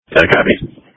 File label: Police Tactical Encrypted